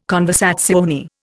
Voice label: "conversazione", Indian